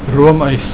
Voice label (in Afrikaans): "roomys", Afrikaans